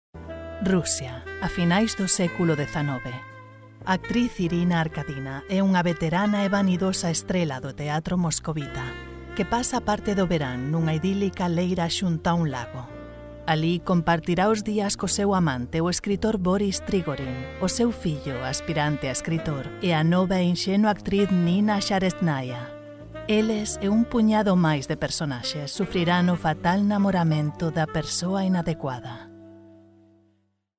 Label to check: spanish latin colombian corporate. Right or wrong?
Wrong